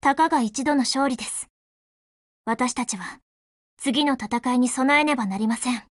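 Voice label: WIN Japanese, Voice; GF Alarm; Fedorov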